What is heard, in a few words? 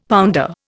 "pounder", Indian; Dictionary